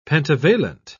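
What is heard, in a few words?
"pentavalent", British English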